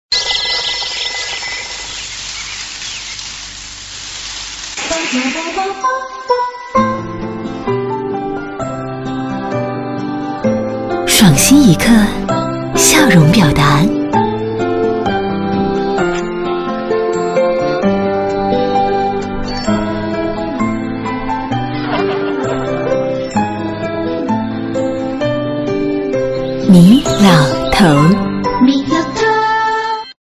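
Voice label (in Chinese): 女 品牌广告【温柔活泼】; 质感磁性; 米老头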